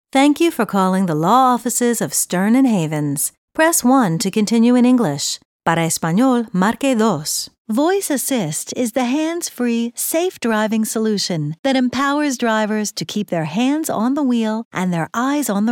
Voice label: US IVR